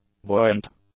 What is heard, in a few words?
"buoyant", English; adjectives